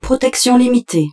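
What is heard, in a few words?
French, shield low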